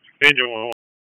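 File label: Raleigh Police Special Operations Tactical, p25; wake p25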